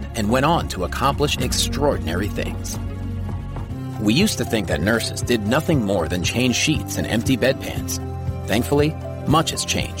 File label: Medical College Narration Nursing